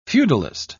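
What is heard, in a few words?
"feudalist", English, British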